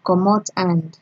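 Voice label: "comot hand", Nigerian Pidgin, female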